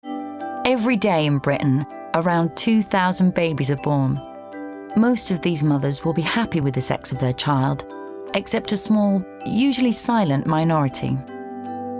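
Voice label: narration documentary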